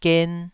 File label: "gen2", Taiwanese